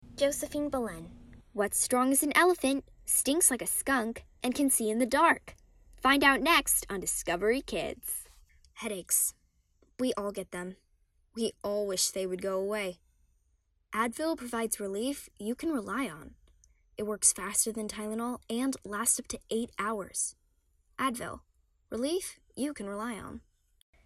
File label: Commercial VO